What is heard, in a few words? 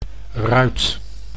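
"ruit", Dutch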